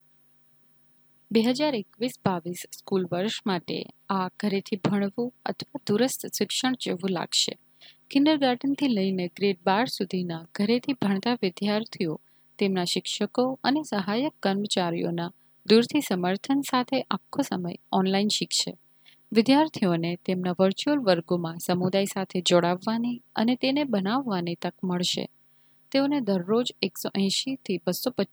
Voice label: Learning at Home Gujarati